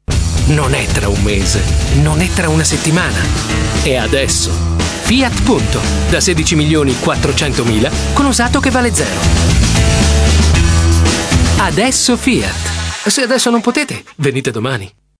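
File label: Italian male voice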